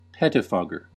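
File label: "pettifogger", American English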